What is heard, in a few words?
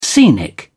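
"scenic", British English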